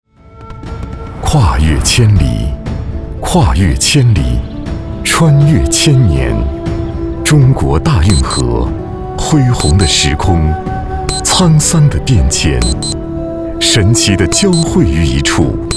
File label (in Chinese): 电视台宣传; 宿迁